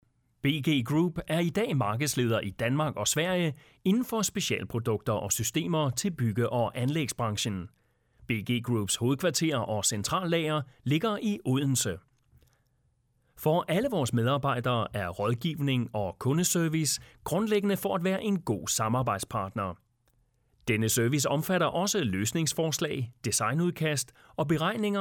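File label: Danish Corporate; GoLocalise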